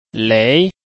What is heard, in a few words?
"lei2", Chinese